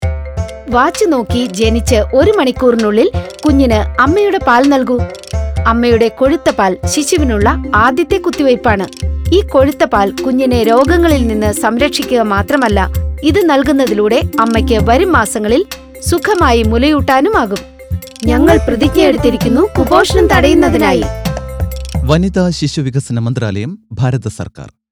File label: Early Breastfeeding Malayalam, Audio Spot; poshan